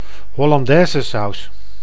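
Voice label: "hollandaisesaus", Dutch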